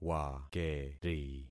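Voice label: "wakeri", concatenated syllables; Affixing experiment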